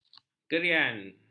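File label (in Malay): "keriaan", Malay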